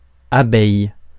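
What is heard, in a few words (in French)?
"abeille", French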